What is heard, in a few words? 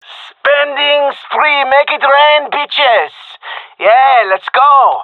gendermerie male; request spend; Counter Strike Model Pack; CS:GO